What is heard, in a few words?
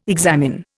"examine", Indian